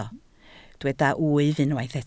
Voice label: "wyf", Welsh

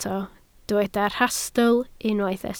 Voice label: "rhastl", Welsh

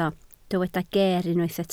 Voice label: "geer", Welsh

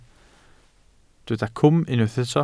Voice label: "cwm", Welsh

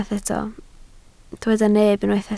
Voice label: "neb", Welsh

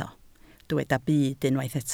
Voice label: "byd", Welsh

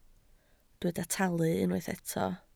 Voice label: "talu", Welsh

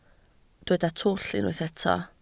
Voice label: "twll", Welsh

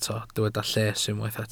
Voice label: "lles", Welsh

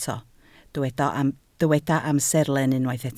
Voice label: "amserlen", Welsh

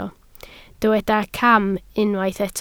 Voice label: "cam", Welsh

